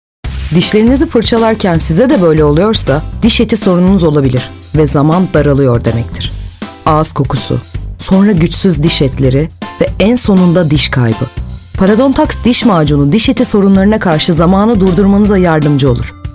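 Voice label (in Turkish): reklam, Turkish; paradontax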